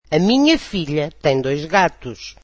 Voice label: portuguese my daughter has two cats